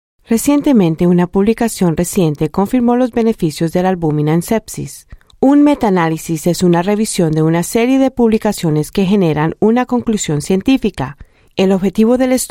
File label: Latin Spanish Colombia, Female